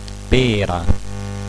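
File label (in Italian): "pera", Italian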